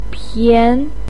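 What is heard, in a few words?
"pian", Mandarin pinyin, tone 2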